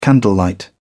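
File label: "candlelight", British English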